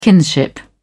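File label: "kinship", British English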